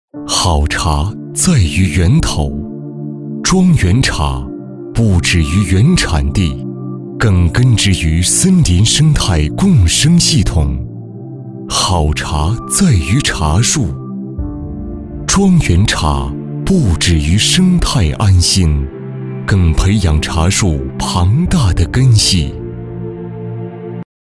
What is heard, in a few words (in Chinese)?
男 广告; 食品饮料; 茶叶广告; 华祥苑